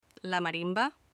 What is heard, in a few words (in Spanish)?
"¿La marimba", Espanol; Espanol para extranjeros